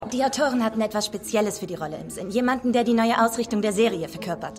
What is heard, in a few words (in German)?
Castingleiterin